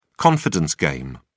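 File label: "confidence game", British English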